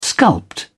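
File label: "sculpt", English, British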